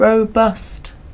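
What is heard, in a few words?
"robust", British English; pronunciations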